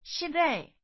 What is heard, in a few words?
excuse me formal, Taiwanese